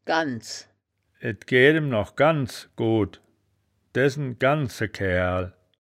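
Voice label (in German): "ganns", wittlicher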